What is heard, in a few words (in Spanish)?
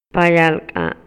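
Maya, Yucatán, male; MOVIMIENTO; INALI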